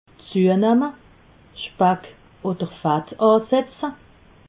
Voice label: "zuenamme", alsace

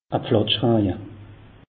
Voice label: "pflatschraje", alsace; meteo

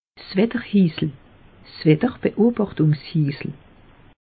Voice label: "wetterhisel", alsace; meteo

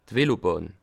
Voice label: "velobahn", Alsace

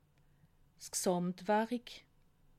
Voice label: "gsamtwarick", alsace

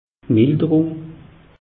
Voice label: "milderung", alsace; meteo

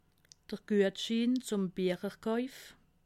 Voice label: "guetschin", alsace

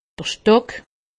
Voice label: "stock", alsace; medecine

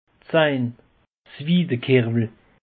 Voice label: "zein", alsace; peche

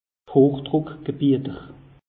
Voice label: "hochdruckgebieter", alsace; meteo

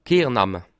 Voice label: "kehr", Alsace

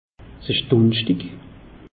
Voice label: "dunschtig", alsace; meteo

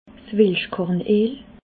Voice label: "welschkorn eel", alsace

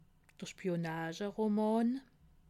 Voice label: "spionageroman", alsace

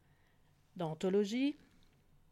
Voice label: "anthologie", alsace

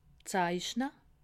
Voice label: "zeichne", alsace

